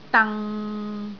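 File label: "dang6", Cantonese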